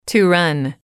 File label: "to run", English